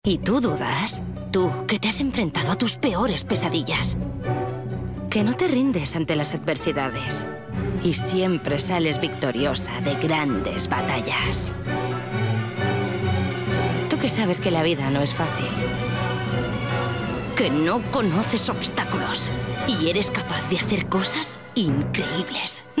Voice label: female spanish diet comercial; ideasonora